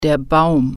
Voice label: "tree", German

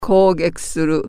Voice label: attack, japanese

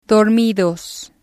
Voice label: "dormidos", spanish

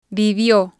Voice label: "vivio", Spanish

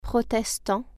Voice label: "protestant", French

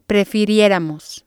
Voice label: "prefirieramos", spanish

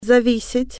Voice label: depend, russian

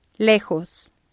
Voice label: "lejos", spanish

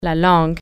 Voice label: tongue, french

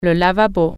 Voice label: sink, french

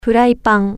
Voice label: frying pan, japanese

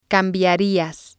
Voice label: "cambiarias", spanish

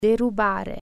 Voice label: rob, italian

